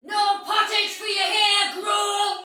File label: See Player Soldier Female, Battle; Dialog; Sinecure